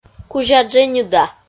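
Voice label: "кузя-дженьыда", Komi, female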